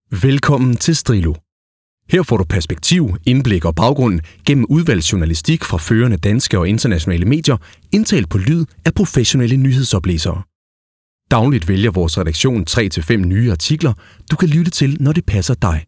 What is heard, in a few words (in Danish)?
reklamespeak; Strillo